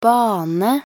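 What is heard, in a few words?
"bane", Norwegian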